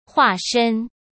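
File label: "化身", Mandarin; chinese-flashcards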